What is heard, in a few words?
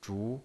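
"zhu" Mandarin Chinese pinyin voice, second tone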